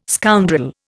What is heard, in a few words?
"scoundrel", Indian